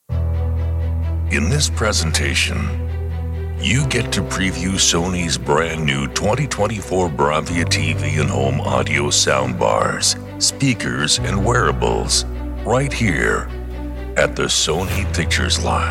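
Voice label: american male corporate, Warm Deep American Male Voice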